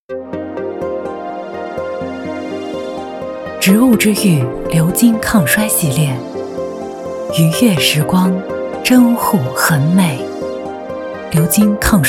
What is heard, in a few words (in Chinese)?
女 TVC 美妆科技; 植物之域